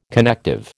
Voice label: "connective", American

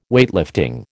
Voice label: "Weightlifting", American

